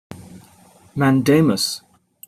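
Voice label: "mandamus", British English; pronunciations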